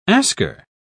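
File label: "asker", English, British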